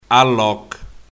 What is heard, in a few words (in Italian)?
Dialetto, Allocco; Bambini; Animali